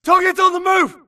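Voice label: grunt target Player Moving Mid; imc; Titanfall 2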